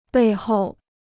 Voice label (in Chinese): "背后", Chinese; bèi hòu; behind; at the back